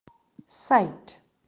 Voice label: "side", English